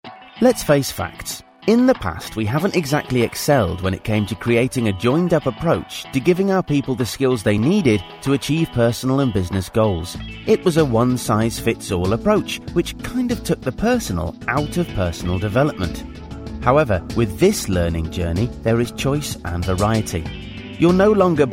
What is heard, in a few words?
Corporate; Voiceovers UK; Corporate Reel